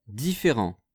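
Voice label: "differents", French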